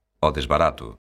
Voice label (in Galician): "ao desbarato", lingua galega; locución adverbial; ɔðesβaˈɾato̝